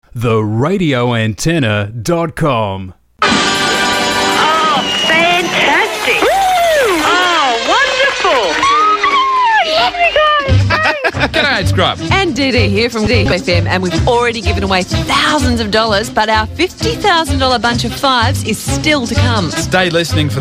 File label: classic hits Promo; 104.3 GOLD FM; Aircheck